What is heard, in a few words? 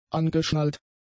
"angeschnallt", German; adjectives